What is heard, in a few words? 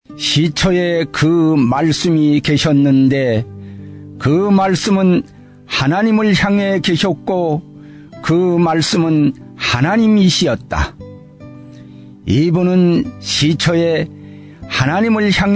north korean; new testament dramatized kor korean northern audio bible